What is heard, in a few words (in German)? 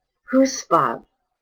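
"Fussball", German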